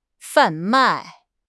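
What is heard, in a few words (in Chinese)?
"贩卖", Chinese; HSK6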